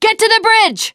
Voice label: bridge available, teengirl